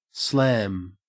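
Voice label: "slam" English, Australian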